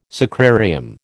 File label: "sacrarium", American